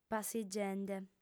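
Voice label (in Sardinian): "passigende", female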